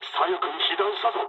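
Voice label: Japanese left wing mood low; War Thunder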